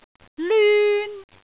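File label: "lyun1", Cantonese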